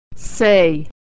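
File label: vowel ey "say", pronunciation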